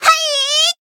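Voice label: Voice attack Japanese; lingli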